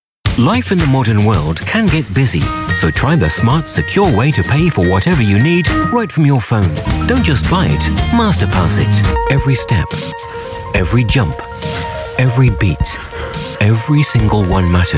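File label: male, UK English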